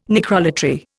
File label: "necrolatry", Indian